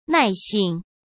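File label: "耐性", Mandarin Chinese; chinese-flashcards; hsk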